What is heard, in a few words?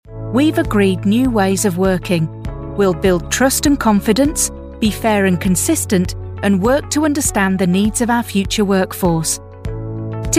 elearning